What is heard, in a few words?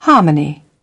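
"harmony", British English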